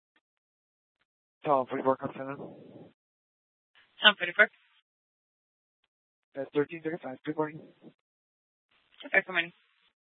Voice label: Dispatch; Scottsdale

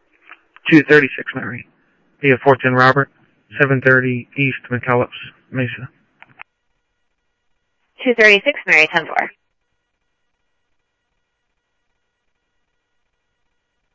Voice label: scanner audio trunk

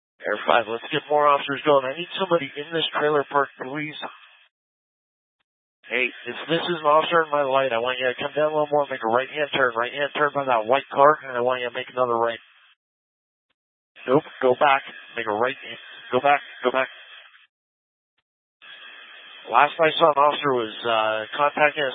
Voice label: Police Dispatch

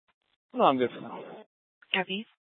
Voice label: Mesa PD Fiesta Dispatch; Mesa Simulcast

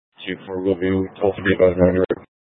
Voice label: Sheriffs Office, scanner

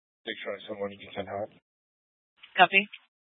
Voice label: Mesa PD Dispatch

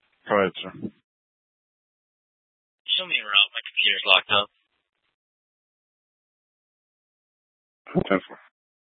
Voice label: PD Dispatch

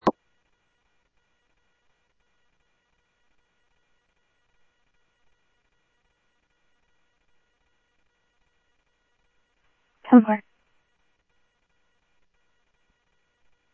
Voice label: Police, scanner audio trunk

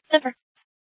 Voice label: Superstition Dispatch, Mesa PD; 4 Superstition Dispatch

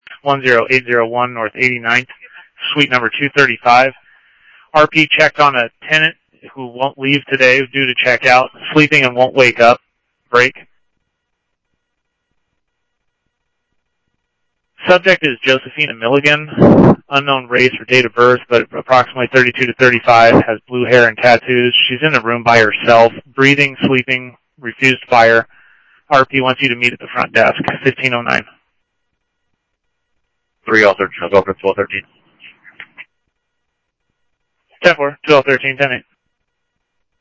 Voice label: PD Police, scanner audio

